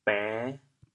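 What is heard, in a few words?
"bên5", Teochew, chaoyang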